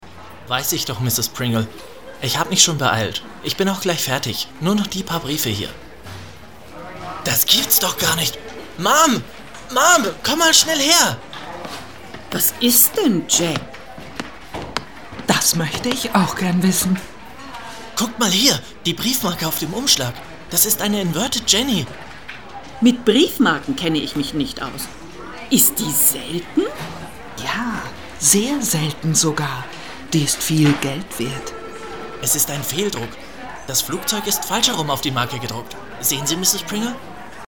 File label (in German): Hoerspiel; Seaport Secrets